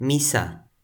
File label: "misa", Toki Pona